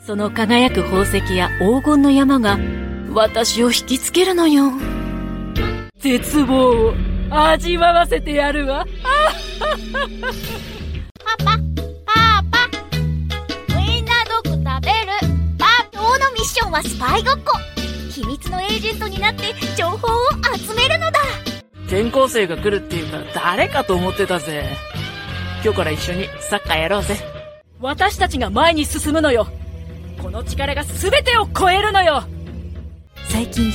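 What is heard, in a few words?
japanese animation, Femelle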